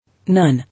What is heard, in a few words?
"None", English